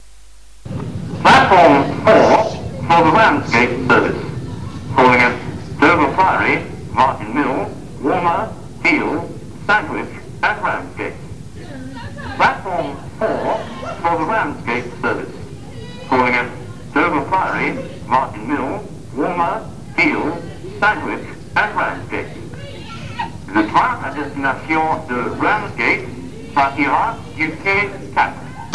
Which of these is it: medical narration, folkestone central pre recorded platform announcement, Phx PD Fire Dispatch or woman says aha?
folkestone central pre recorded platform announcement